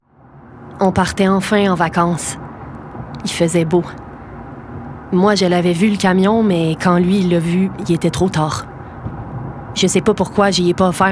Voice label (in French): Publicité; SAAQ